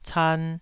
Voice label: "can2", taiwanese

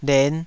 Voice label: "len5", Taiwanese syllable

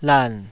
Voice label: "lan3", Taiwanese syllable

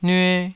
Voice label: "nyue2", taiwanese

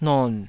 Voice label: "non3", taiwanese

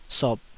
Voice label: "sop3", Taiwanese

